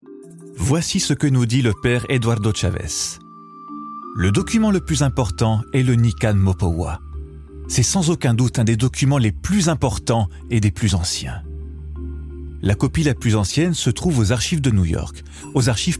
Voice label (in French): DOCUMENTAIRE; Guadalupe